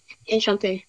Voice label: Voice Acting, es, F0